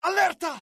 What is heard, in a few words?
alert, dialogue IT; mohaa; attack